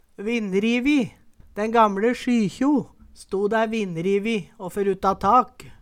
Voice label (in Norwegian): "vinnrivi", Numedalsmål; o dær vinnrivi å ferutta tak